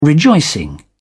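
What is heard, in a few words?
"rejoicing", British English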